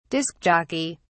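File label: "disk jockey", English, British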